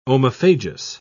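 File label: "omophagous", English, British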